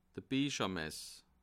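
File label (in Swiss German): "biechermess", Bas Rhin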